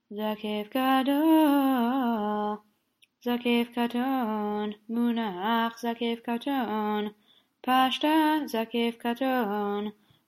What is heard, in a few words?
polish lithuanian esther זקף